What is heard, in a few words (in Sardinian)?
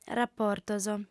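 "raportos", female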